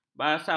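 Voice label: "basau", Malay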